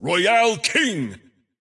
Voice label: intense